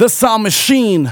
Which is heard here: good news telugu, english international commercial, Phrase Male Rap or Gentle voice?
Phrase Male Rap